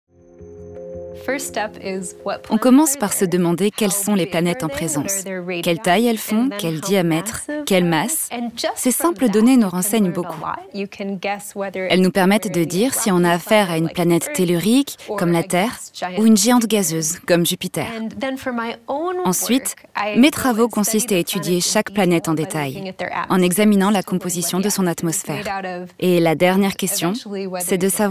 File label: Voice Over